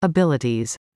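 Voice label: "abilities", English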